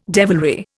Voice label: "devilry", Indian